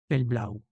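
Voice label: "pel blau", Occitan Languedocien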